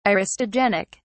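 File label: "aristogenic", British English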